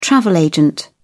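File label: "travel agent", British English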